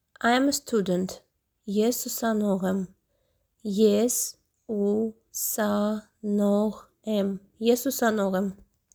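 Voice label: English "I am a student", Armenian "Es usanogh em"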